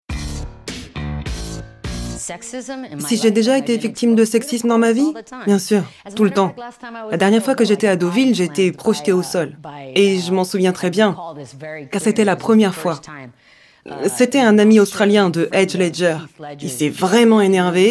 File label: voice over actrice